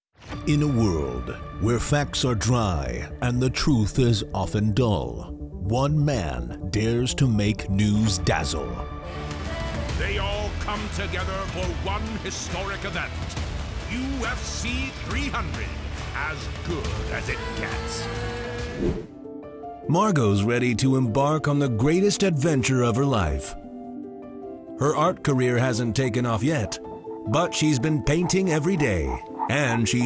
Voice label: Trailer